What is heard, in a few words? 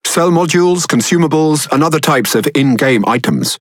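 Speech Tutorial, British English; World Of Warships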